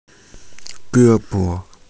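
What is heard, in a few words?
"ge1bo5", Mandarin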